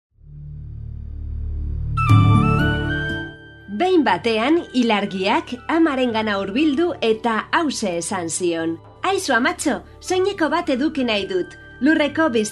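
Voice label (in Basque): Euskara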